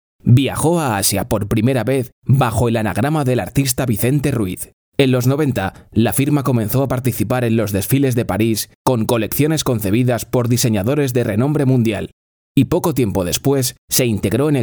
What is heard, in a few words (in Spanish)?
audiolibro corto, banco de voces; Voz masculina en castellano muy versátil y comercial